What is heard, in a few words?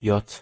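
"j" German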